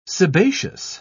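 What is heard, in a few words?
"sebaceous", British English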